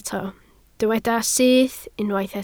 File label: "syth", Welsh